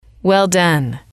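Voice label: well done, female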